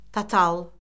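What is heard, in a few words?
"total", Azerbaijani; Orfoqrafiya Lüğəti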